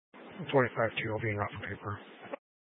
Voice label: Sheriff Dispatch, scanner; Mesa County